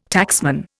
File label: "taxman", Indian